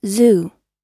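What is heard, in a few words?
"zoo", English; oxford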